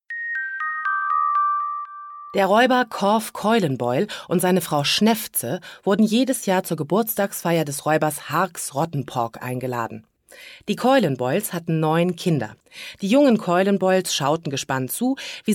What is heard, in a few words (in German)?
Sprecherdemo Hörbuch; Die Räuberschule